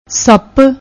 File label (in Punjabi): "sapp", Punjabi